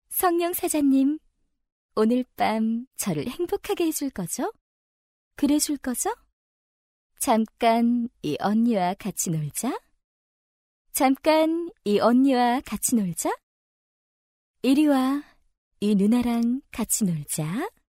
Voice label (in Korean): 섹시한 고혹적 유혹 여자 게임 20대